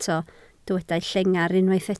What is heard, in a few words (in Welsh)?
"llengar", Welsh